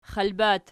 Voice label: xalbat nom, archi

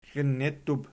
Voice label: "xlennettub", Archi

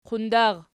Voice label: "qundar", Archi

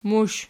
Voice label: "mush", Archi

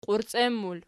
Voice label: "qiurciem", Archi

